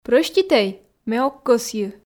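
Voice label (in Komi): прӧститӧй ме ог кӧсйы, Komi, female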